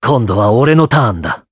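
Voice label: voice, Japanese; Esker; kingsraid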